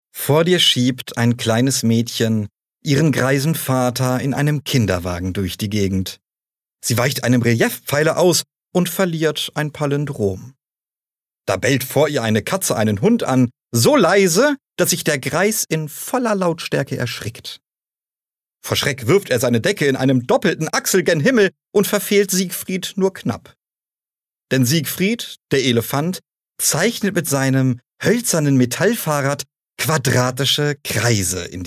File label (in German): Junge